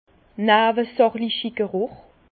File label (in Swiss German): "geruch", Bas Rhin; vin; arômes secondaires